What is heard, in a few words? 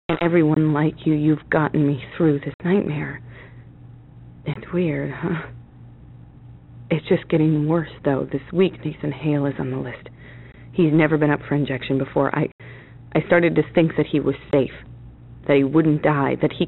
Cell Call